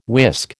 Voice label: "whisk", American